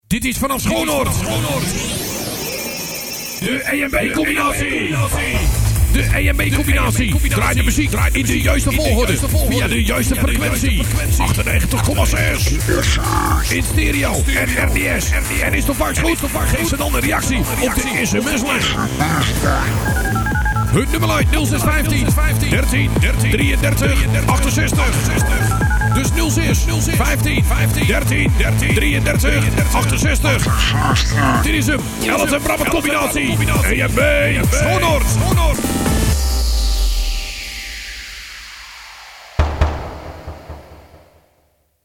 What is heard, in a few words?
Jingle; Ellert & Brammert Combinatie